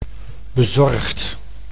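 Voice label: "bezorgd", Dutch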